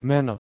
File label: "mena", Proto-Germanic